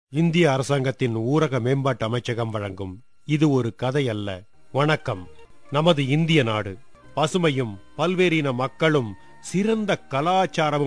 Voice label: Tamil Male